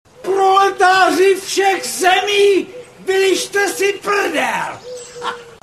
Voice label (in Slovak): sms hlásky cz